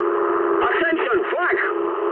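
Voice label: damaged anti-aircraft mood high, speech English; War Thunder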